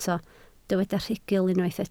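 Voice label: "rhugl" Welsh, spoken word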